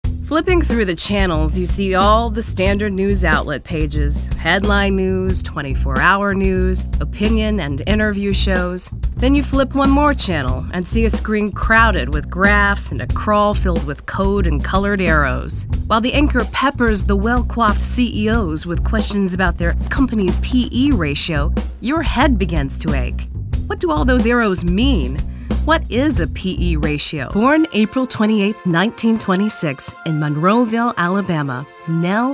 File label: Narration